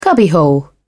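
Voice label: "cubbyhole", British English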